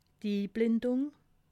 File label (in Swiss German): "inblendung", alsace